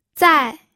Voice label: "zai4", pinyin

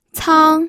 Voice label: "cang1", pinyin

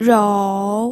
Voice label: "rou3", pinyin

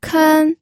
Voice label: "ken1", pinyin

